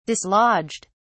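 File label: "dislodged", English, British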